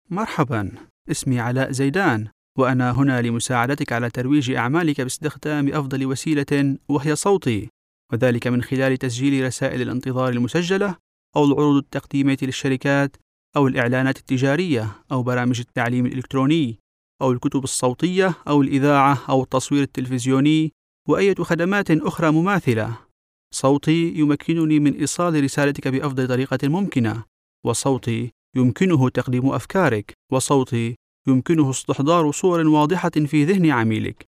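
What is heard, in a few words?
Arabic Syria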